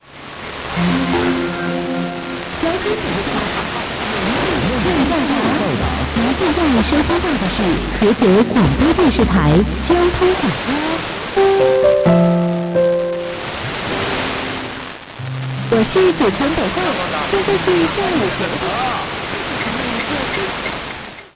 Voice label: 94.8MHz Traffic broadcasting; Heze City GD